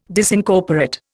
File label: "Disincorporate", Indian